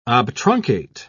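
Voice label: "obtruncate", British English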